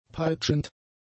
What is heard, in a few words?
"peitschend", German; adjectives